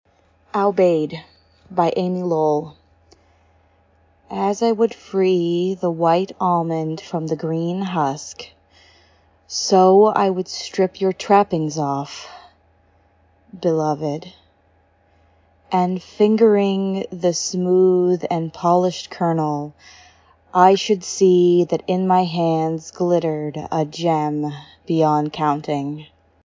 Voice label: Dramatic Reading; Aubade by Amy Lowell; Erotic